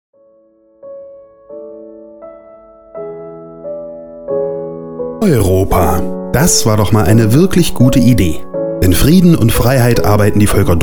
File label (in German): Radiospot